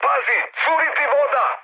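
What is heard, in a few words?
Serbian damaged water mood high; speech; War Thunder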